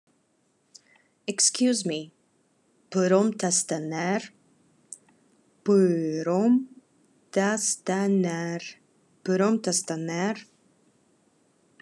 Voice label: Khakas Excuse me